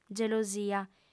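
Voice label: "gelosia", Sardinian, female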